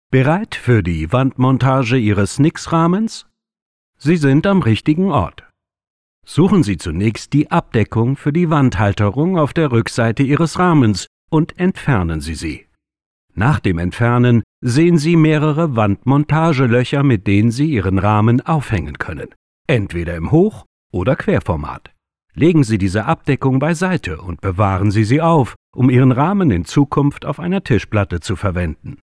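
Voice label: German, male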